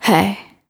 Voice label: Vocal "Hey", exclamation

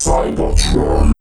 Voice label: Vocal Cyber Tron, pitch glitch

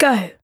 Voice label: Vocal "Go", exclamation

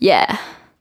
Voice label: Vocal "Yeah", exclamation

